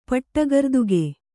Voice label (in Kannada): "ಪಟ್ಟಗರ್ದುಗೆ", kannada